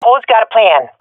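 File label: request plan, swat female; vo; Counter Strike Model Pack; CS:GO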